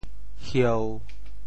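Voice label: "hieu1", Teochew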